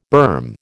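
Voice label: "berm", American English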